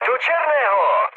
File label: bomb success, speech cze, mood med; War Thunder